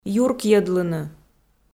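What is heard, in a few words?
"юркйӧдлыны", Komi, female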